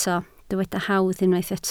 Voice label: "hawdd", Welsh